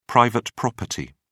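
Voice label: "private property", British English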